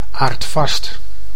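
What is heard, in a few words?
"aardvast", Dutch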